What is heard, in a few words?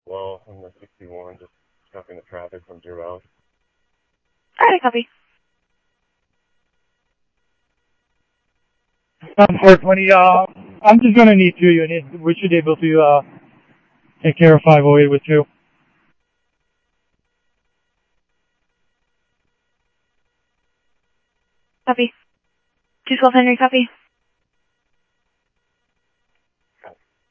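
police department, scanner audio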